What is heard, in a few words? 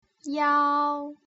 "iao", putonghua